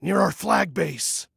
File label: Warn Enemy Near Our Flag, Male